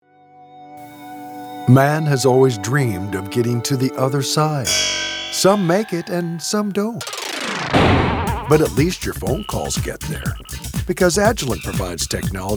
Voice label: COMMERCIAL